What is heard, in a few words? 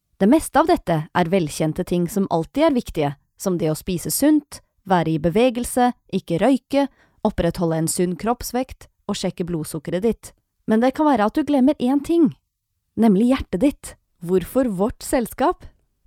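Norwegian, female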